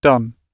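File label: "done", British English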